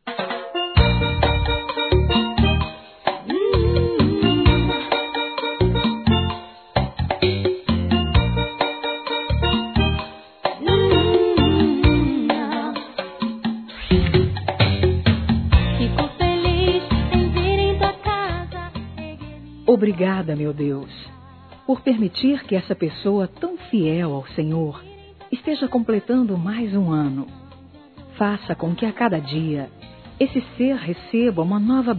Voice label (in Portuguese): Aniversário Neutro Evangelico Feminino; Aniversários